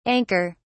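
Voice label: "anker", British English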